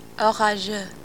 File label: stormy orageux; french; Travelling